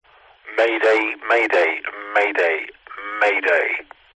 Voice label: vehicles airplane pilot radio chatter comms control tower says mayday